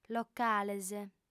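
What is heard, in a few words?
"locales", female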